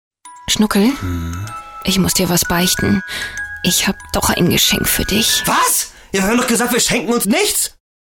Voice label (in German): Funkspot